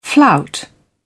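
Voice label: "flout", British English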